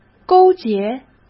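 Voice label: "勾结", Mandarin Chinese; hsk; chinese-flashcards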